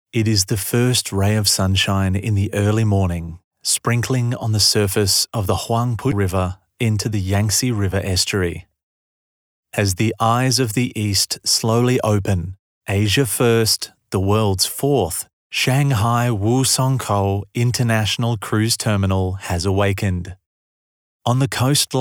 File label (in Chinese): 外籍男,国际邮轮港宣传片